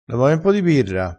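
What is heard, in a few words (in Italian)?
"e carda", dialettale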